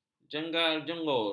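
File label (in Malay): "jenggar-jenggur", Malay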